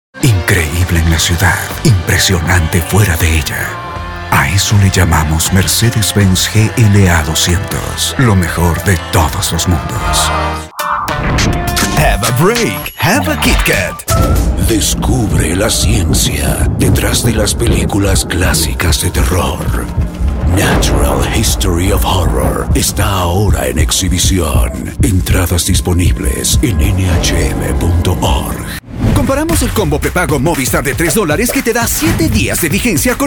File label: Commercial